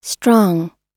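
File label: "strong" English word; oxford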